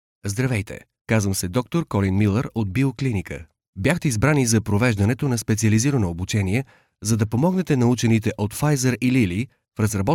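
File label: Bulgarian narration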